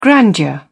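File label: "grandeur", English, British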